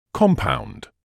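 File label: "compound", British English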